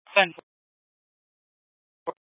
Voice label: Scottsdale Police, Simulcast

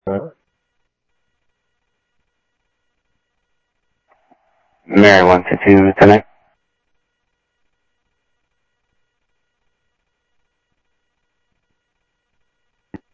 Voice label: South Scottsdale Sheriff, scanner audio

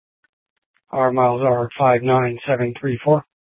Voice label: Sheriff, scanner P25

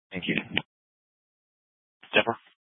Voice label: Phx PD Dispatch, scanner; C- Chandler

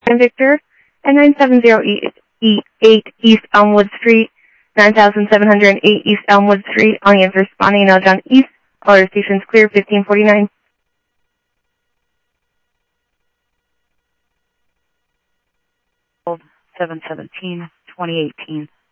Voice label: Info Sheriff Common, scanner audio; MCSO - Info Sheriff - Common; South Scottsdale

